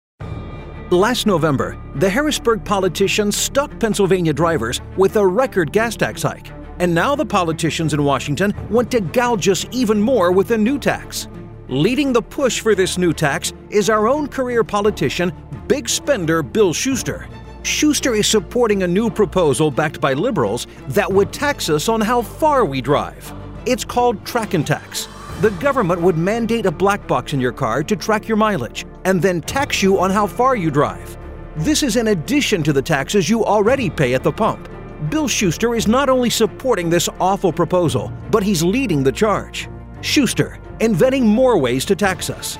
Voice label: Negative, political

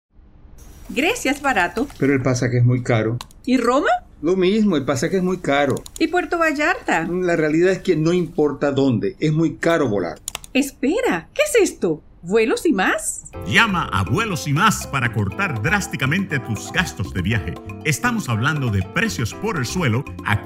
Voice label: Low Cost Airlines Spanish

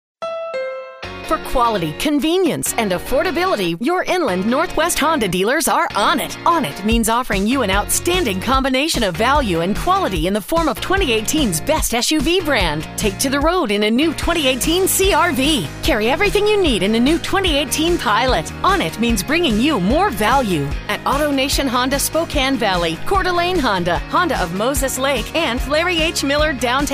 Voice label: Energetic

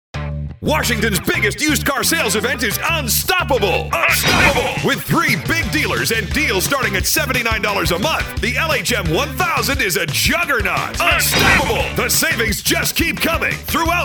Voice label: Upbeat, Car Dealers

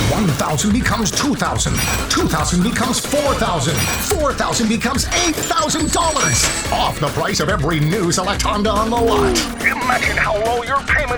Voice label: Radio Double Tax Rebates; Stevenson Honda; AutoMatic Demos; Car Dealers

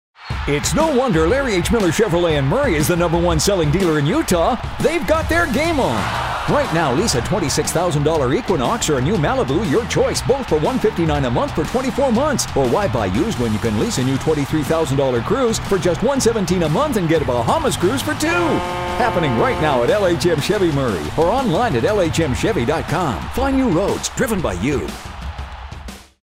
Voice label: Car Dealer, radio; SpotWorks Radio Production

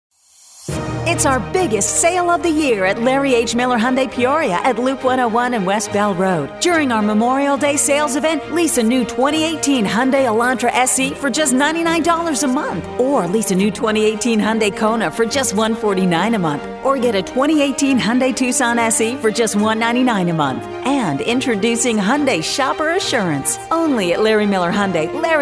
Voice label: Upbeat Sell

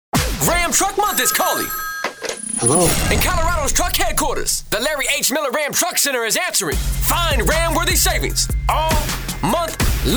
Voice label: Urban Automotive

